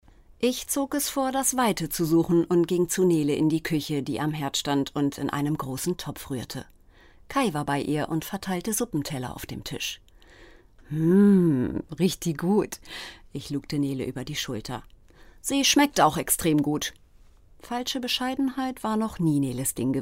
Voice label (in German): Hörbuch Roman